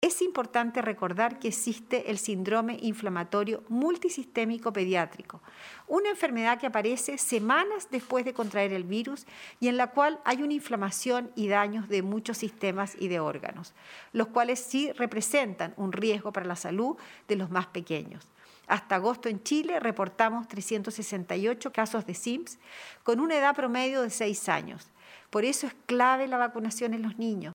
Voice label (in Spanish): cuña